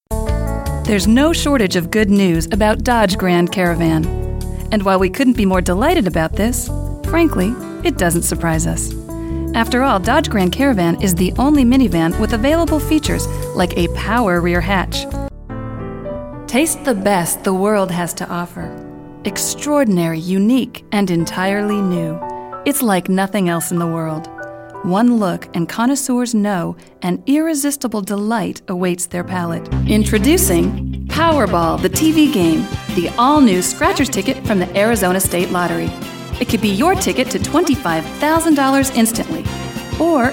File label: Commercial, Female voices